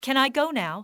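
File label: can i, english female; XBOX; civ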